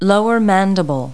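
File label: "lower mandible", English words; Multi-Lingual Talking Picture Dictionary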